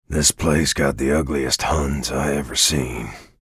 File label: chatter neutral; bj blazkowicz